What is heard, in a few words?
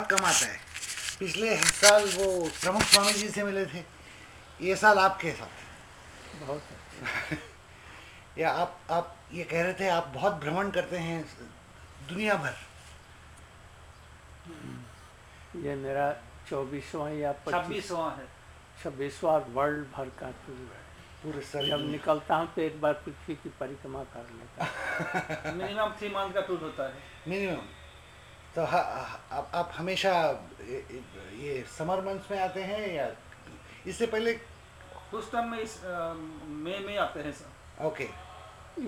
HINDI PRESS INTERVIEW